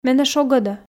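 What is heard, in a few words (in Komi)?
"менӧ шогӧдӧ", Komi, female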